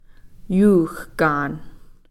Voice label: "yuuxkan", trout